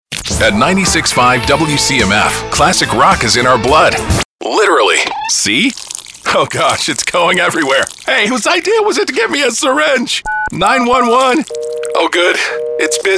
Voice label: Imaging, voice actor